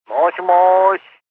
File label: Hello Japanese, Male; Telephone Voice; VoiceBox